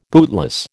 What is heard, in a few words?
"bootless", American